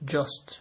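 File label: "just", Nigerian Pidgin, male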